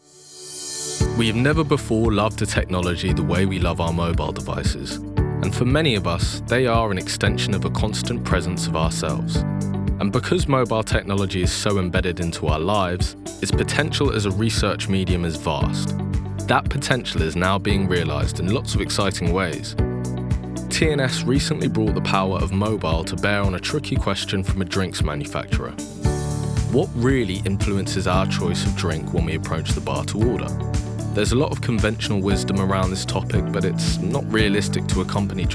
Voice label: Corporate